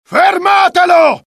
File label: alert, dialogue IT; mohaa; attack